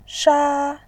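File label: "sha", Mandarin Chinese, first tone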